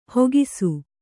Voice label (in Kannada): "ಹಾಕಿಸು", Kannada